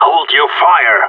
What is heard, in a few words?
friendly fire, radio; GSG9